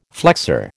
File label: "flexor", American